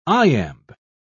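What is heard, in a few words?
"iamb", British English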